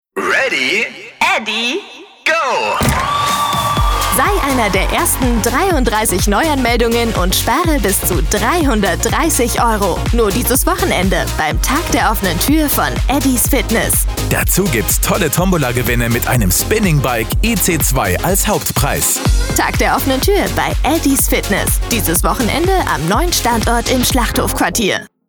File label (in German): Funkspot Fitness; Eddis